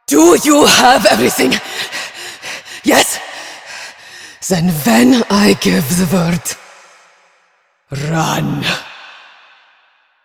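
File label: Female; Villagers; en; Warframe; Cetus Invasion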